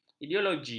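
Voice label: "ideologi", Malay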